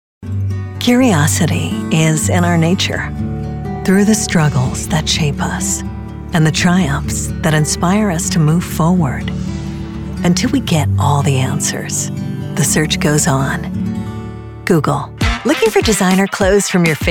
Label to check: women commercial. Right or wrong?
Right